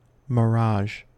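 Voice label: "mirage", US English; pronunciations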